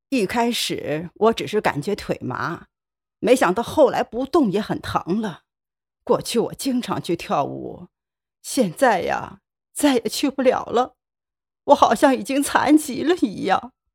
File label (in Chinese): 50岁患者; 角色